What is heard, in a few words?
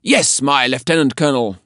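Voices Units Allied Grenadier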